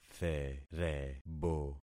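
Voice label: "therebo", concatenated syllables; Affixing experiment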